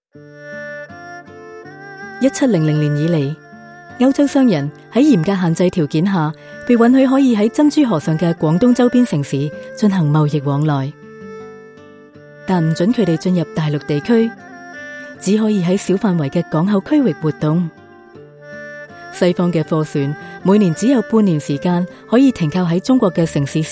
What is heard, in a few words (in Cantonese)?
Narrative (Chinese Cantonese)